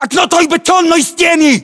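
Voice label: landmark near wall cinderblock, battlechatter, Russian; localized english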